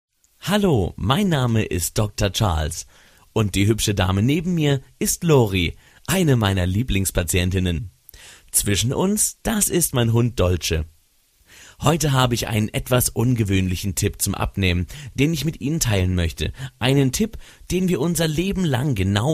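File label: DE M character, German, male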